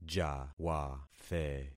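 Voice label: "jawathe", concatenated syllables; Affixing experiment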